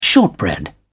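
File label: "shortbread", British English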